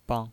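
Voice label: "bang", Mandarin Chinese, neutral tone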